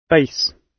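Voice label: "base", British English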